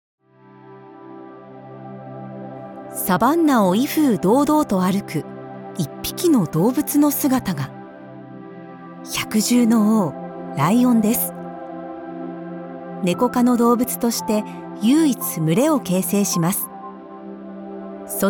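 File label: japanese documentaries, female Japanese; voice actor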